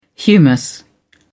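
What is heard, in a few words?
"humus", English, British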